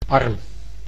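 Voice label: "arm", Dutch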